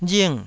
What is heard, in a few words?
"ring4", Taiwanese